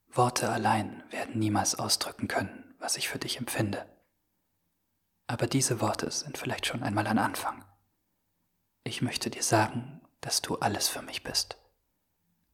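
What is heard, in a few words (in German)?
Sanft, Schauspiel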